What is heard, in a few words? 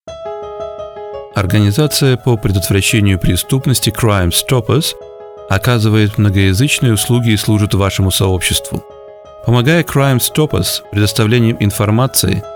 Russian; Crime Stoppers